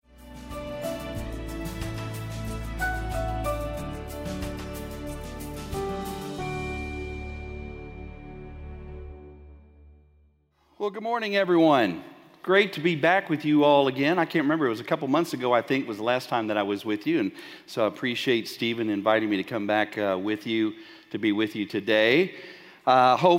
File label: Sermon Spanish